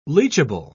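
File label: "leachable", English, British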